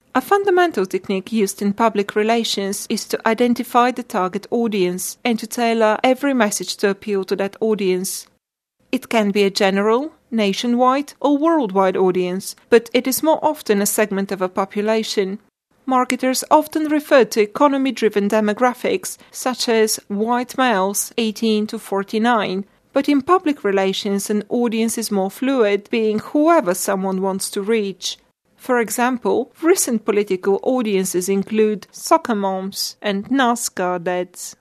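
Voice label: Bulgarian English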